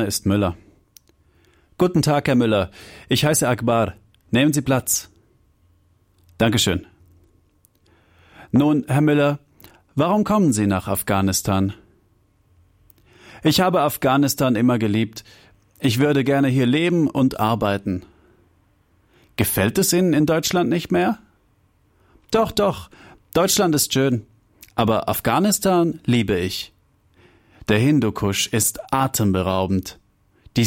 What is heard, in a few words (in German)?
Das Vorstellungsgespräch fast